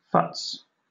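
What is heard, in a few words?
"futz", British English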